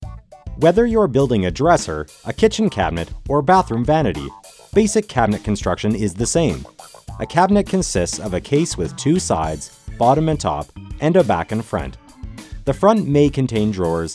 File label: E-learning